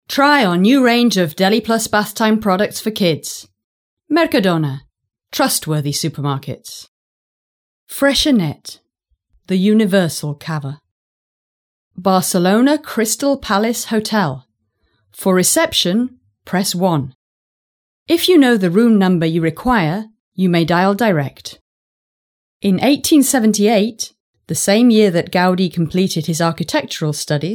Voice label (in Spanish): locutora inglesa